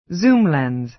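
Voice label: "zoom lens", American English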